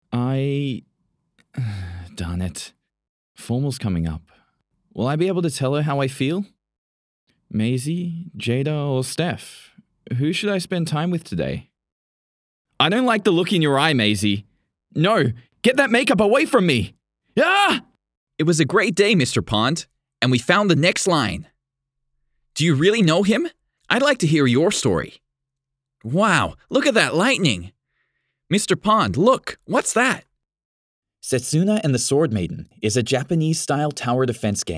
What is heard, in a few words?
Character